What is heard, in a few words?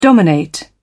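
"dominate", British English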